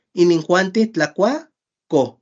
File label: "ininjuantij takuakoj", Náhuatl; ellos(ellas) vinieron a comer; they came to eat